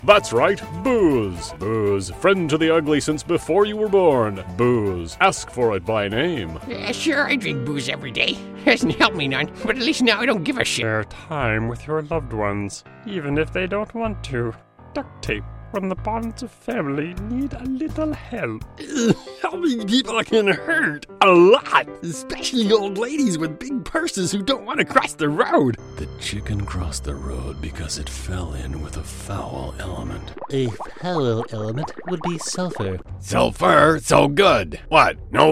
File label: Character Voices